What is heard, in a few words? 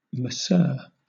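"masseur", British English; pronunciations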